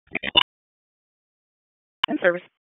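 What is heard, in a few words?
EMS Dispatch, p25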